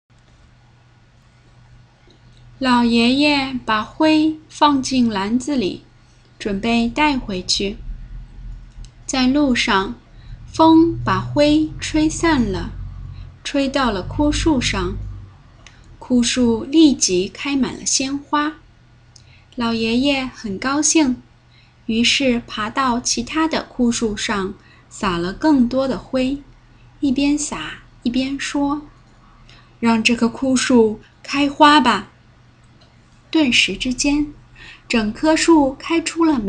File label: tingli, chinese